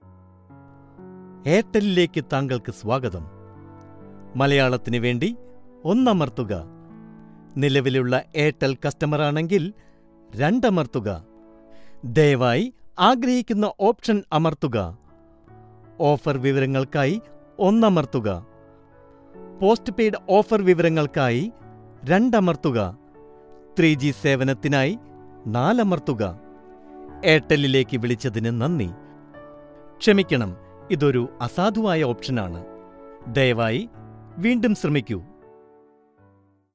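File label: IVR MALAYALAM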